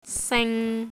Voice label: "sing1", cantonese